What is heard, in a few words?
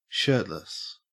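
"shirtless", Australian English; pronunciations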